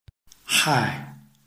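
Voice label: "hai", Chinese, tone 4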